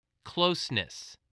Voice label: "closeness", voice